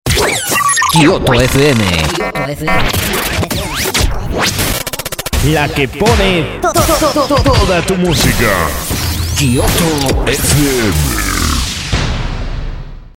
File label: jingle; kioto